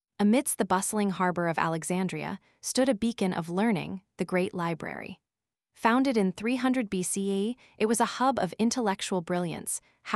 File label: voiceover en